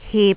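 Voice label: "hip3", Jyutping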